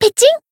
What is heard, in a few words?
attack, jp; pizzica